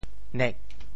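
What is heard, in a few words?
"nek4", Teochew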